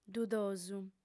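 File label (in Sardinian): "dudosu", female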